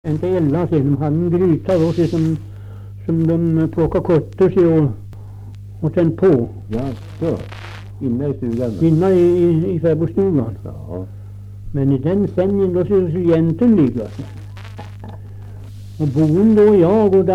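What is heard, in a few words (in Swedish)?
Österförnebo Gästrikland, Svenska sagor, dialekter; Folkminnen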